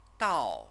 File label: "dao", Chinese